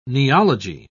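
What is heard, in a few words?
"neology", British English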